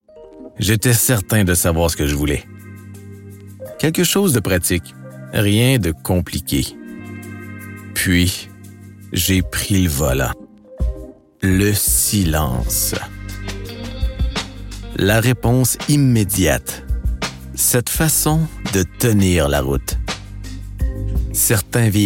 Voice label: commercial automobile premium, voix-off

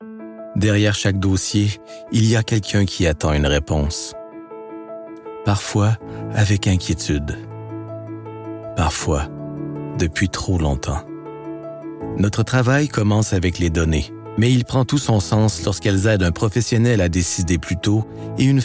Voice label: Corporatif Sante Humain

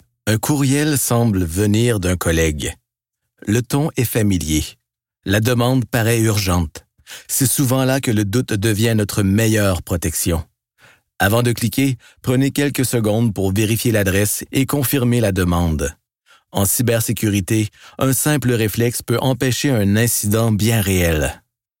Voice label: Formation Cybersecurite Rassurant, voix-off

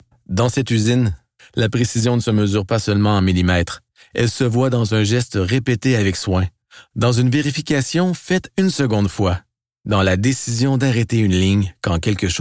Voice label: Corporatif Industriel Serieux, voix-off

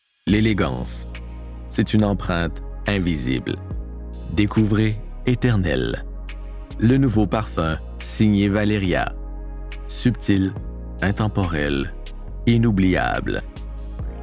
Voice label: publicite, voix-off

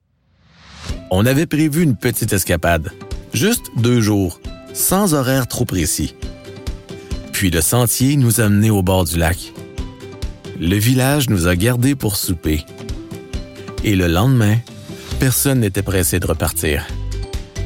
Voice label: commercial tourisme chaleureux

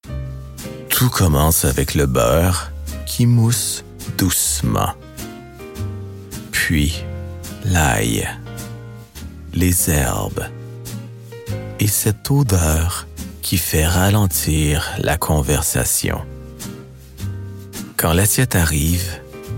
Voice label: commercial restauration elegante

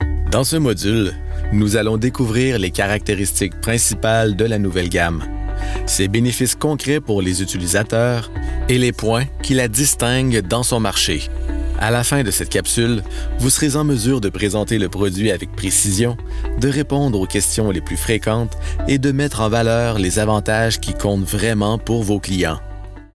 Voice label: formation produit, voix-off

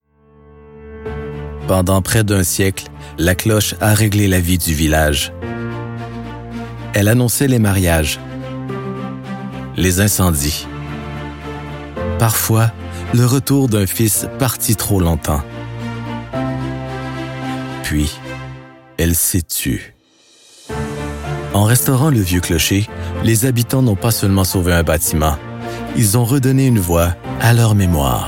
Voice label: Documentaire Patrimoine Chaleureux, voix-off

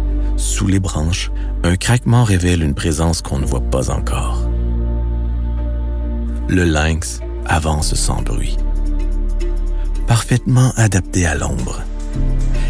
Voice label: Documentaire Nature Mysterieux, voix-off

